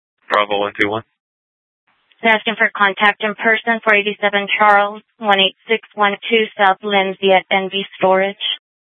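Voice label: Sheriff, Parks, Sheriff radio scanner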